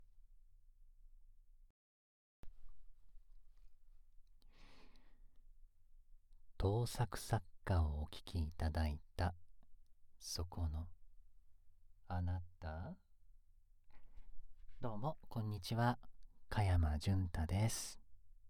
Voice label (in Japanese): フリートーク, ASMR